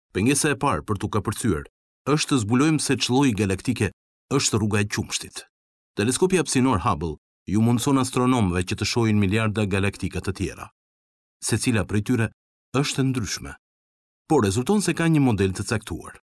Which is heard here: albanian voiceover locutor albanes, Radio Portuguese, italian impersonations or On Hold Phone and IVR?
albanian voiceover locutor albanes